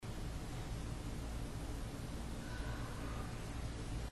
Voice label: child voice